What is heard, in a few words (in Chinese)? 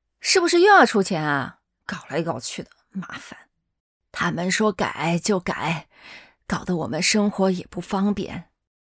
女 角色 素人 中年女性 老奶奶